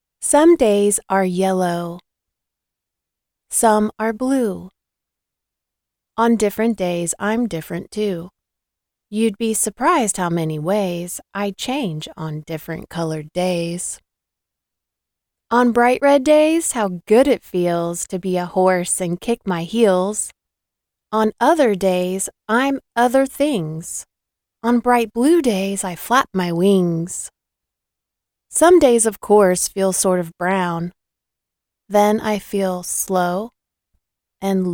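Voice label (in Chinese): 外籍女声 儿童绘本 美式英语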